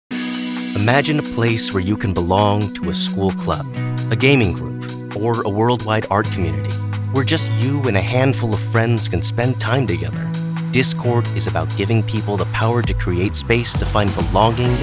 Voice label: Corporate Narration